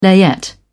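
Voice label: "layette", British English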